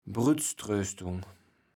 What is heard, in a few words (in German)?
"Brüttströstung", platt